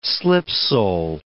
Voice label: "slipsole", words